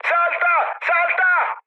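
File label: Italian bailout mood high, speech; War Thunder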